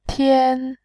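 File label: "tian", Mandarin Chinese, first tone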